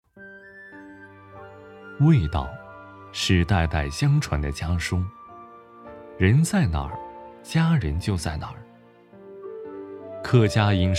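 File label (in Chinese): 味道纪录片; 舌尖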